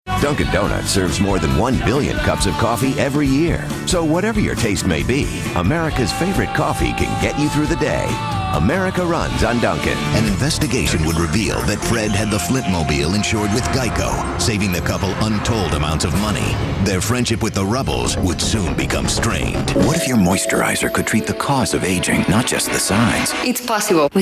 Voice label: commercial

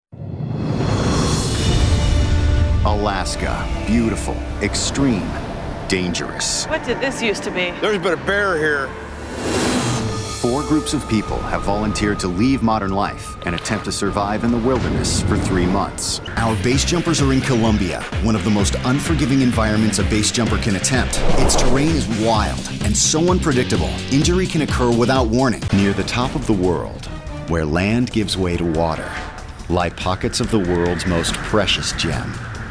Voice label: Narr

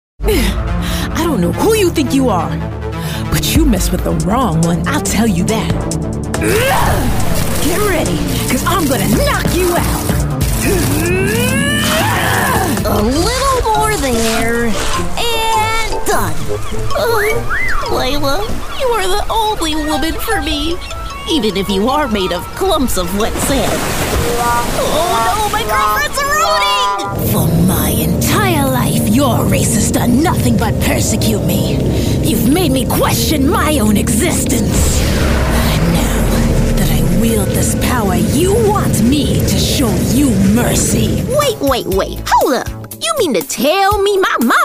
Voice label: Animation

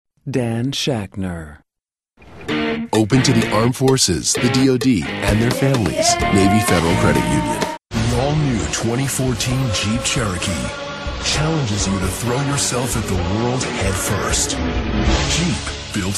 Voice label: Commercial

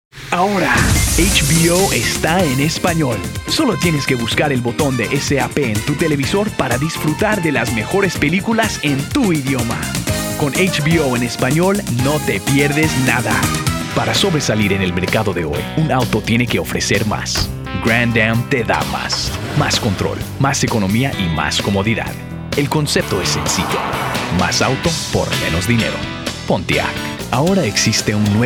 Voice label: spanish

